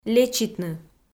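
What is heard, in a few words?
"лечитны", Komi, female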